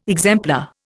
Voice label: "exemplar", Indian

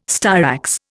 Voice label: "styrax", Indian

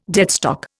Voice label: "deadstock", Indian

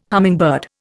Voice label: "hummingbird", Indian

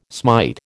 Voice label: "smite", American

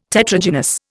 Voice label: "Tetragynous", Indian

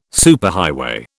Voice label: "superhighway", British

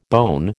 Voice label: "bone", American

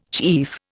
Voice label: "chief", Indian

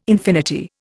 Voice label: "infinity", Indian

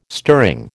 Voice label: "stirring", American

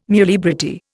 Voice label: "muliebrity", Indian

